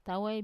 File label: "tawai", snake, Wamesa